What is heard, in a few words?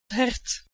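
"h1ert", Proto-Indo-European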